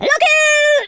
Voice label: grenade, Scotsman; speech